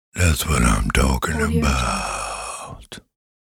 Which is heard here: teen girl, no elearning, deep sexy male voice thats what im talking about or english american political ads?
deep sexy male voice thats what im talking about